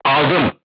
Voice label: voice message target destroyed, crew tanks turkish